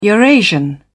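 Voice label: "eurasian", British English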